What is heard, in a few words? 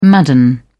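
"madden", British English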